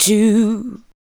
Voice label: SPOKEN VOX, ADLIPS

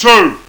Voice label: "two", vocal

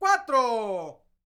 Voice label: MEXICO, MEXICAN; ILIO; Vocal Planet VOL-5

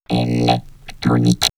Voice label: Man Machine Electronic, robot vox; X-Static Goldmine

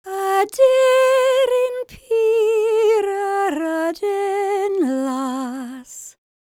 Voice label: WELSH F, World Voices

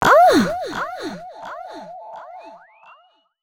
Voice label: female c# 138, Dance Ultra Voice; Mutekki Media

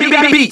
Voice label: Vocals

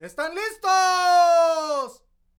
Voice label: MEXICO VOICE, MEXICAN; ILIO; Vocal Planet VOL-5

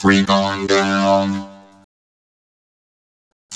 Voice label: Vocoded; Drum N Bass; Misc; Sonic Foundry (Sony Creative Software); Knutrix Drum & Bass

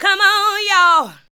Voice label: ADLIBS 90; Ueberschall; Jam Box

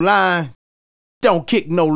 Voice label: Don't Kick No Lines, Hip-Hop and RnB Vocals

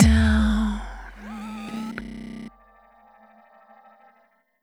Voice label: "Down", Vocal Samples, Words; Sonic Foundry (Sony Creative Software) - Pocket Diva World Class Vocal Samples

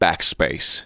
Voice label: "BACKSPACE", SPOKEN; Techno Trance Essentials

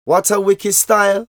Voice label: Vocals; Jungle Frenzy 1

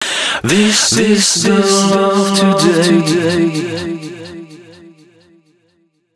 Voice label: male d# 130, short hooks, Dance Voice

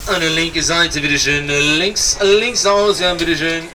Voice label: "oktober", shouts; Best Service; Hyper Dance

